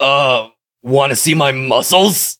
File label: touch, en; Cookie Run